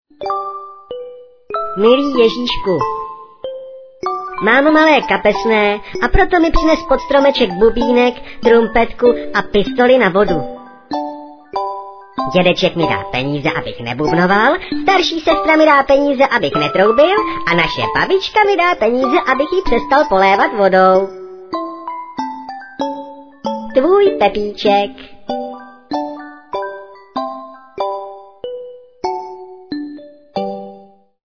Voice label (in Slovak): sms hlásky cz